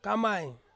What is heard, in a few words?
"kamai", Ansus; tomorrow